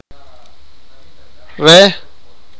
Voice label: sipakapense; he

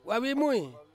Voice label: "vavimui" wife, Ansus

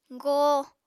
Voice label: "goo", Apatani

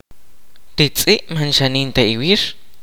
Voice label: "yesterday the dog did not run", kaqchikel

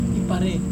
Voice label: "iparei", shoot, Serewen

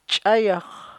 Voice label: "ch'ayax" thick brush, chukchansi

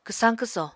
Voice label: "kwsañ-kwsò", apatani

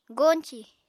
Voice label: "goñcì", Apatani

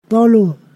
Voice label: "three", Ponosakan

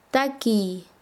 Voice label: Chanka, quechua; cantar

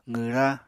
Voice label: "qwra", Apatani

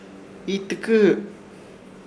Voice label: "Iitɨkɨhɨ", Chamacoco, como te llama; Ishir

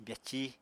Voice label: "byací", Apatani

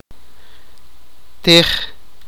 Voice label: sipakapense; eat

